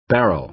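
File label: "barrel" American English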